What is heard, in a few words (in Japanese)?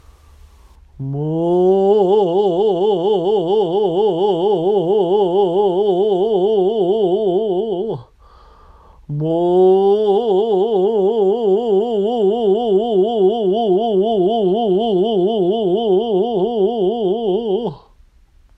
Mo 男性